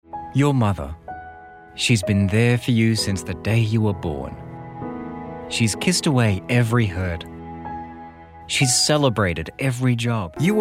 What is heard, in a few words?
Commercial